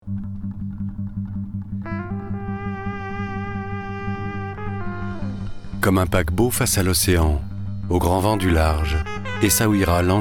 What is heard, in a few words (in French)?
voix, voix off